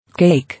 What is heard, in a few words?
"Cake", English